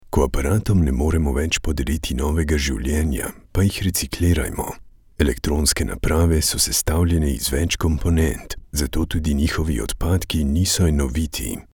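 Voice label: Slovenian, male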